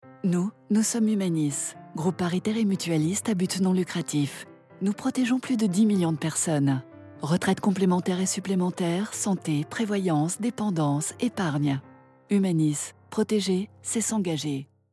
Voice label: spot radio corporate; HUMANIS